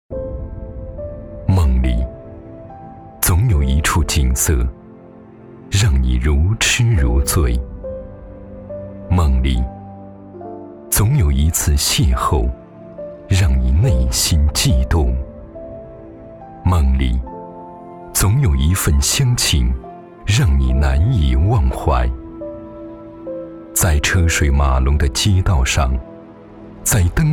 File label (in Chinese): 男 专题